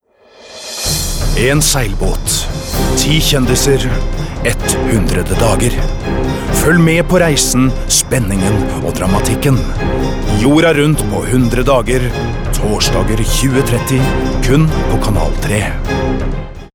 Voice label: no tv promo